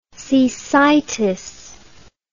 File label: "cecitis", English